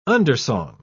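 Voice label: "undersong", English, British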